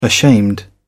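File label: "ashamed", English, British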